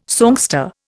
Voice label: "songster", Indian